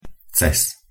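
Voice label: "cez", Slovak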